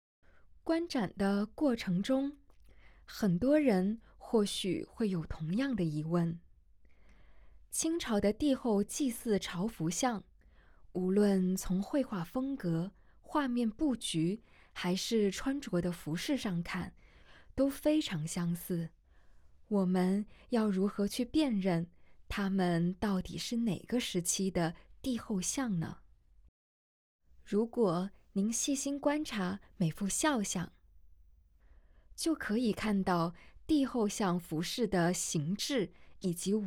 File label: Putonghua; audio guide